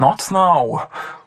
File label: not now annoyed